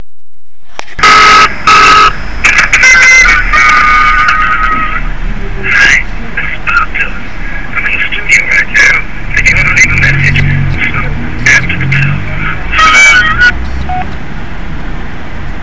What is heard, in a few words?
answerphone